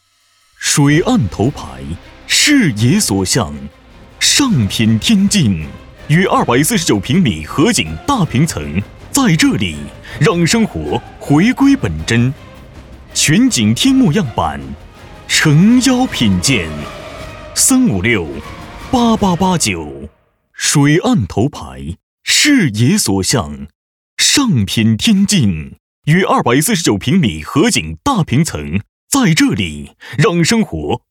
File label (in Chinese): 男 宣传; 水岸头排